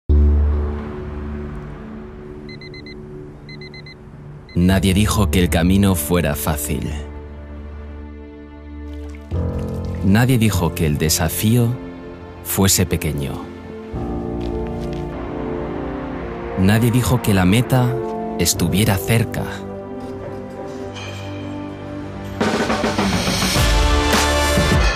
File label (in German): ESP Werbung Freundlich